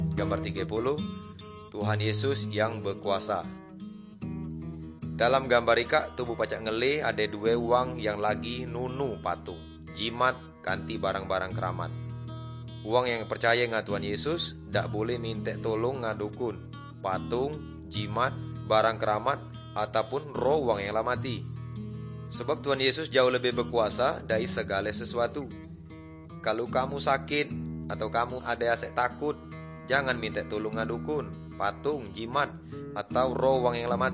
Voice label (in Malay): good news melayu tanjung raja